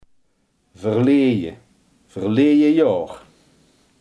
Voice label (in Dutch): "verleden", limburgse